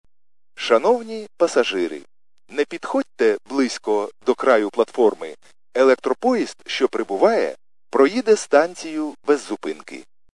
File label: khs st male, subway station